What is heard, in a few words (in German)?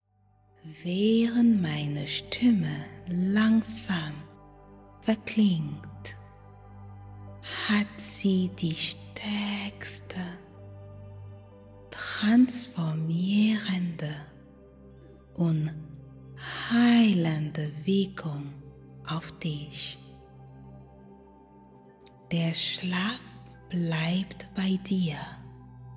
Sample Muhelos Einschlafen Hypnose fur Tiefschlaf; fall asleep effortlessly hypnosis for deep sleep